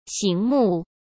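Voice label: "醒目", Mandarin Chinese; chinese-flashcards; hsk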